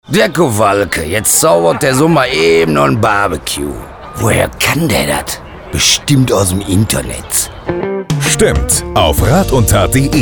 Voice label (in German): Spot Barbeque